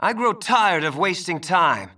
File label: Male; baldurs1